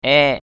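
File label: "aik", Eastern Cham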